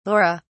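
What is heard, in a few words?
"laura", British English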